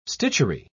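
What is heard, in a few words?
"stitchery", English, British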